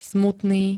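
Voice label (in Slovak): "smutný", Slovak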